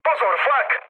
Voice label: damaged aa, speech cze, mood low; War Thunder

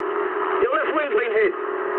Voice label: eng left wing mood med, speech eng; War Thunder

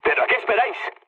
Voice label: attack position, mood low, speech; War Thunder

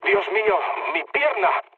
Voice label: damaged pilot mood low; speech; War Thunder

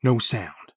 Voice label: silence; Escape from Tarkov

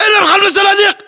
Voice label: order cover boxes, battle chatter, english

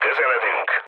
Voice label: near waypoint, mood low, speech hun; War Thunder

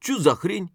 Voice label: mutter; Escape from Tarkov

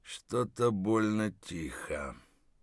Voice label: mutter, voice; Escape from Tarkov

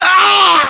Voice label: death, voiceovers english

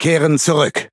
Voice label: Speech Pilots Bomber Land, DE; World Of Warships

